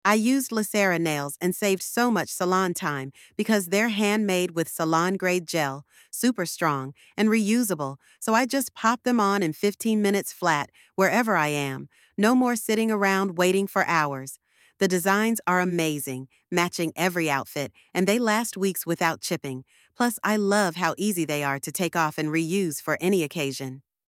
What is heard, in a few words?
voiceover; Le Sarah's Reusable Gel Nails Benefits; Discover how Le Sarah nails save you salon time with their strong, reusable 100% salon gel; Kaylin AI